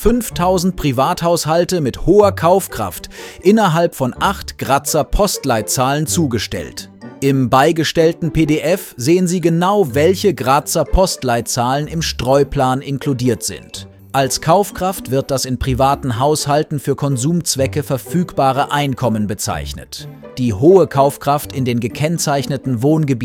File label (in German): flugblatt voiceover audio; graz